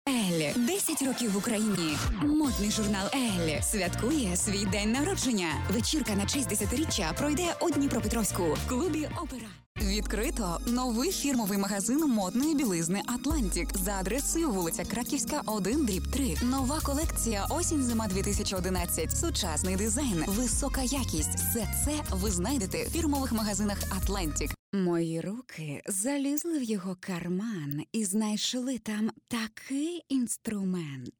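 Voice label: Ukrainian, female; Professionelle Sprecher und Sprecherinnen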